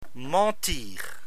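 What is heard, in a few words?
"mentir", French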